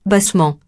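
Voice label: "bassement", French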